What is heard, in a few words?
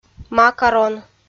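"макарон", Komi, female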